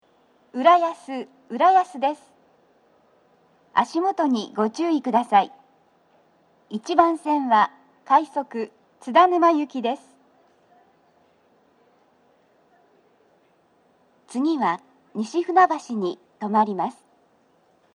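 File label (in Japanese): 到着放送, 女声, 放送は「快速 津田沼行き」です。; TOA円型での収録です。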